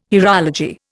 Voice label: "hierology", Indian